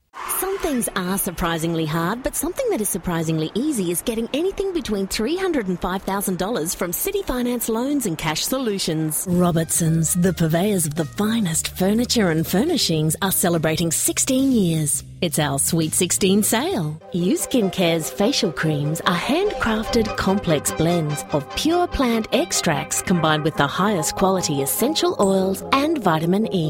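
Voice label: English Australian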